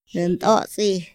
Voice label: "ra nt´atsi", Otomí; INPI; INALI

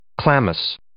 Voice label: "chlamys", English

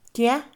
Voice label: "no", Mixe, Mujer; INALI

